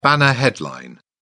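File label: "banner headline", British English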